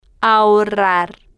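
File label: "ahorrar", Spanish, slow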